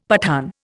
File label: "Pathan", Indian; Dictionary